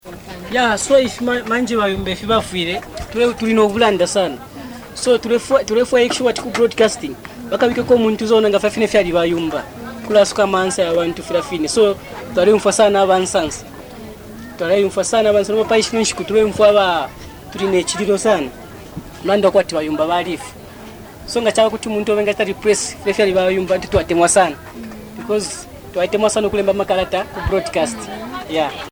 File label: town bemba